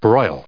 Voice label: "broil", en us

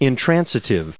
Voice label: "intransitive", us

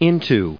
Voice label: "into", en us

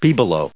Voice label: "bibelot", en us

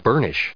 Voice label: "burnish", en us

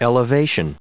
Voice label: "elevation", us

